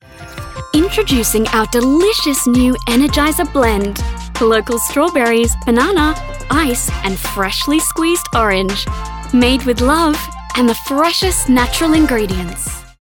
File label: female english australian and irish australian accent commercial; irish southern